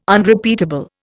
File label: "Unrepeatable", Indian